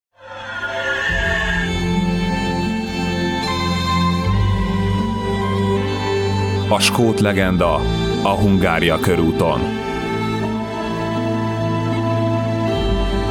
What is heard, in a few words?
spot, sportradio